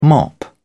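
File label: "mop", British English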